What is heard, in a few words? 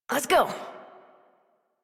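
horse start adult, English; Warframe; Duviri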